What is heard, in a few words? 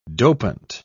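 "dopant", British English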